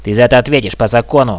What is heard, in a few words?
oh no, hostage reactions